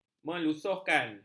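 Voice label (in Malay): "melusuhkan", Malay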